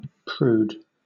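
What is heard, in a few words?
"prude", British English; pronunciations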